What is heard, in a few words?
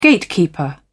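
"gatekeeper", British English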